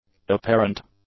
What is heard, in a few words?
"apparent", English; adjectives